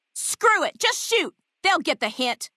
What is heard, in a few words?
female adult; FNV; leave water